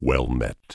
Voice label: Tauren Male Warrior NPC Greeting

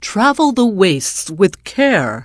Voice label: NPC Tuskarr Female Farewell; Warrior

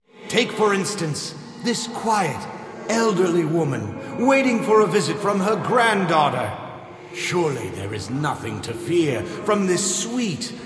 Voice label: Announce; Barnes The Stage Manager

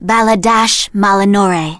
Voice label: Blood Elf Female Standard Greeting

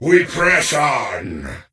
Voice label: Death Knight Male Greeting

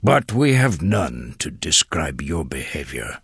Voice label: Tuskarr Male Pissed; Warrior

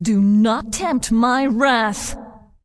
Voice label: Undead Female Warrior Pissed; NPC

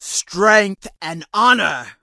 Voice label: Orc Female Standard NPC Greeting

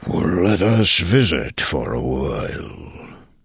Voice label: Tuskarr Male Greeting; NPC Tuskarr Male Warrior

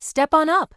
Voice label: Human Female Standard NPC Vendor

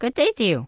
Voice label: Gnome Male Young Greeting; NPC

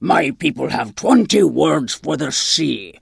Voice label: Tuskarr Female Pissed; Magic User

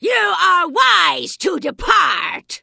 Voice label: Vrykul Female Magic User Farewell

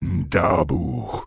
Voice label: Orc Male Guard Farewell

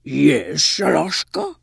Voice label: Tuskarr Female Greeting; Magic User

